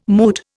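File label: "moot", Indian English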